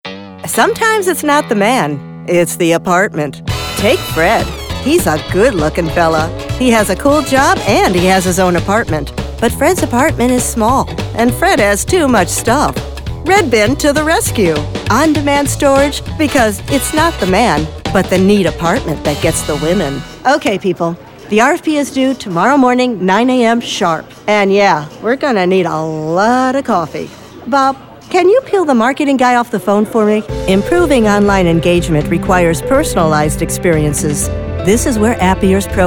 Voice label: explainer